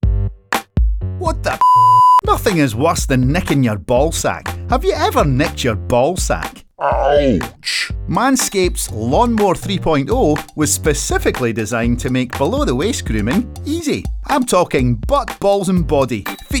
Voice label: Trimmer Ad